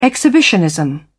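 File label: "exhibitionism", British English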